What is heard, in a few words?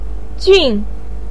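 "jun" fourth tone, chinese, voice pinyin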